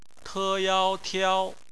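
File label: "tiao", Mandarin Chinese; phonetics; bpmf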